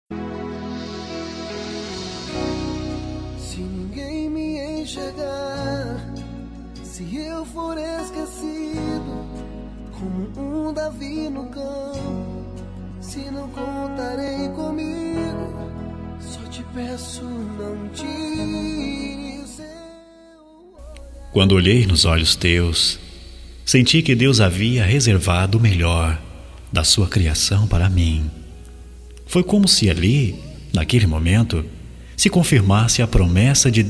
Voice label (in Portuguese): Romântica Evangélica Masculino, telemensagem